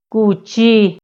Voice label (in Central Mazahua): "kuchi", Mazahua; INALI; AprendeMazahua